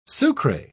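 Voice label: "sucre", British English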